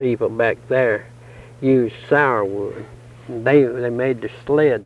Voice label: Interview with 65 year old white male Mountain Rest South Carolina; american english dialect